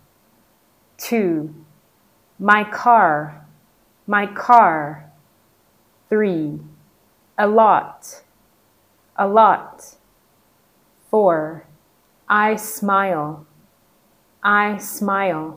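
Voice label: American English Female Vowels; L1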